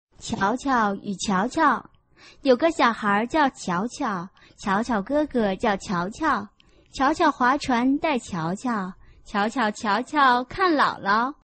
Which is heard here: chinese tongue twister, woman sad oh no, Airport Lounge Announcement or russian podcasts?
chinese tongue twister